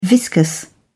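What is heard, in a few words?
"viscous", English, British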